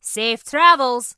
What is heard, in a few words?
Dwarf Female Guard NPC Farewell